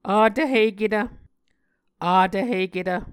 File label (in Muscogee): "avtvhiketv", Muscogee